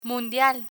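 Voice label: "mundial" Spanish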